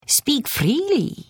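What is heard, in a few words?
Hello, Khajiit female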